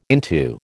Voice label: "into", American